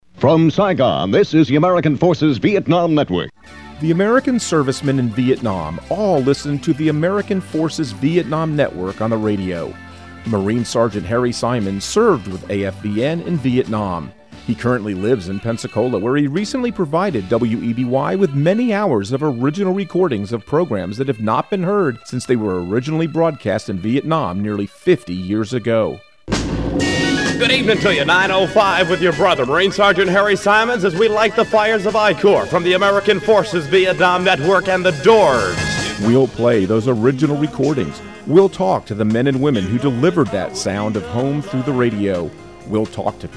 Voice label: promo ad